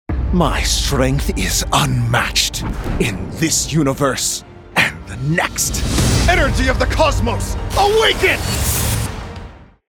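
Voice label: Superhero, Australian English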